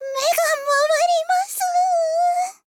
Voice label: healer faint, voice